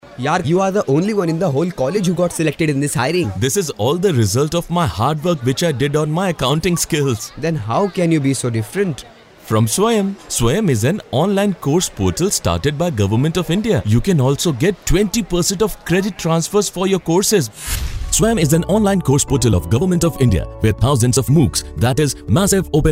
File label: Spot English